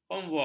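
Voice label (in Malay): "konvoi", Malay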